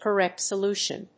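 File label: "correct solution", English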